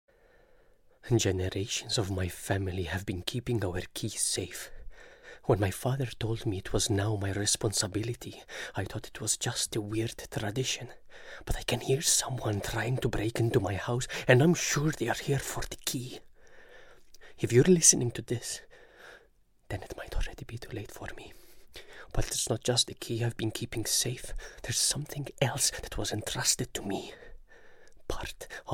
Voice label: male arabic italian and maltese english with arabic accent audio; devine voices